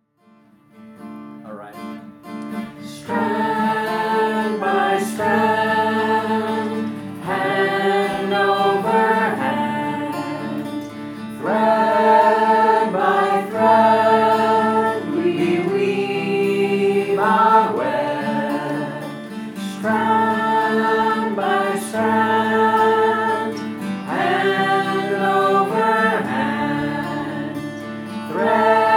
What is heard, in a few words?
English Spanish